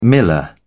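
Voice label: "miller", British English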